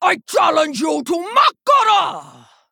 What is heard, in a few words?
Female Fel Orc Play